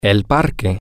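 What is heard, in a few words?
Spanish, male; the Park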